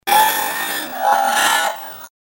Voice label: Voice effect